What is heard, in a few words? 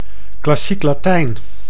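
"klassiek Latijn", Dutch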